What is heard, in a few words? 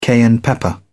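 "cayenne pepper", British English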